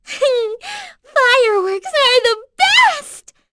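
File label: Vox Victory, voices heroes English; Pansirone; kings raid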